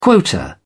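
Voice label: "quota", English, uk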